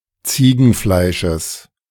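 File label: "Ziegenfleisches", German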